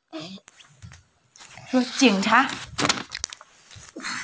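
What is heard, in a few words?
"jing cha", Mandarin Chinese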